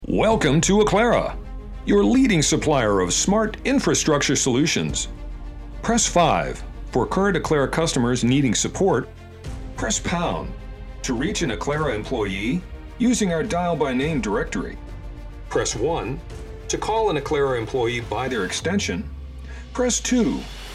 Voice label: Voice OVER with music